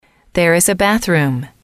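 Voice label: "there is a bathroom", English, female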